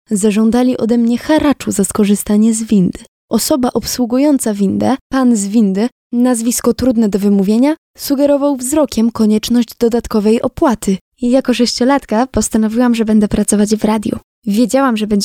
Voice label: Polish female